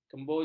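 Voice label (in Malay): "kemboja", Malay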